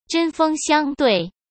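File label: "针锋相对", Mandarin Chinese; chinese-flashcards; hsk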